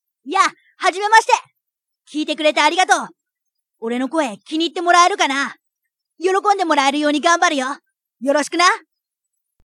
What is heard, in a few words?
boy